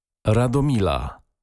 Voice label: "radomila", polnische maedchennamen

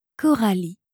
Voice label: "coralie", franzoesische maedchennamen

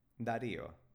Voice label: spanische jungennamen dario

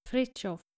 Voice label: "fridtjof", nordische jungennamen

